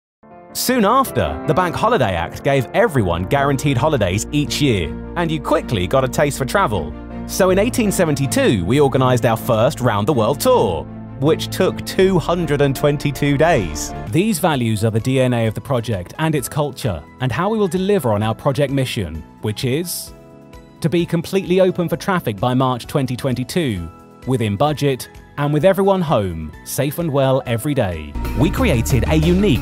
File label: English Voiceover Artist